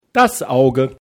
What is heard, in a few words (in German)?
"Auge", d